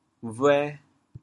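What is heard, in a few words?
"bhuê2", Teochew, chaoyang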